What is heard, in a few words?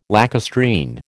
"lacustrine", American